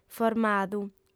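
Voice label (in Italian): "formadu", lingua sarda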